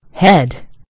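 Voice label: "head", American English; gcompris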